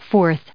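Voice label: "forth", US English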